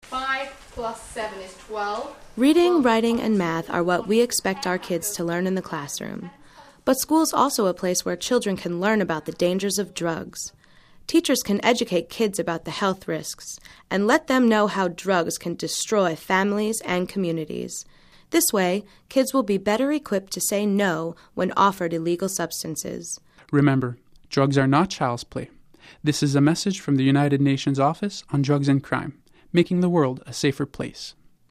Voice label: English